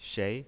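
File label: "shei", Mandarin Chinese pinyin, second tone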